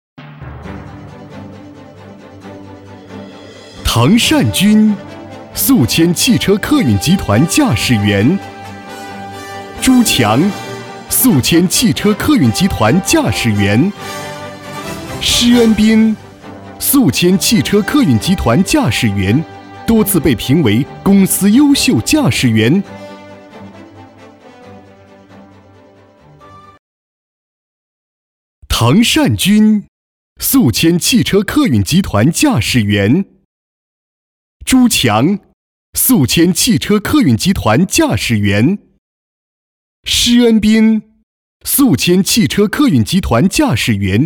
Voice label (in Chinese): 国语男, 颁奖样板